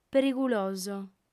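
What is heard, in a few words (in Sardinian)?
"periguloso", female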